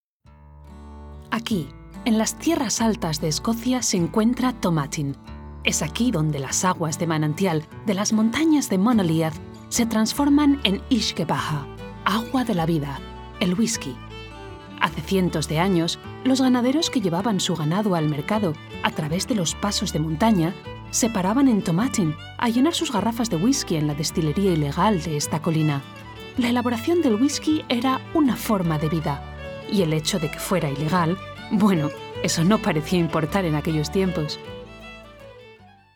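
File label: Spanish Spain, General; GoLocalise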